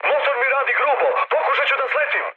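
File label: Serbian damaged engine mood high, speech; War Thunder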